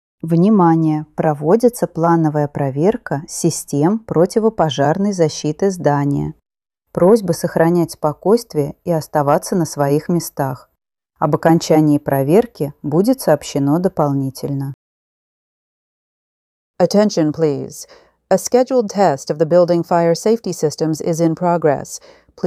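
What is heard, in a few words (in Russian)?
оповещение проверка систем начало ru en; rechevye soobshcheniya soue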